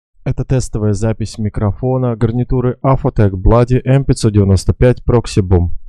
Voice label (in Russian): mikrofon, bloody m595 proxy boom review